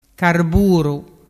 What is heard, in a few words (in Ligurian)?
"carburu", dialettu